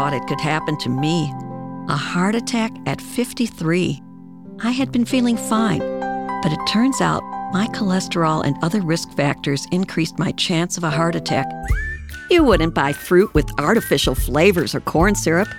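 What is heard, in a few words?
commercial voice over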